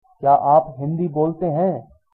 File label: do you speak, hindi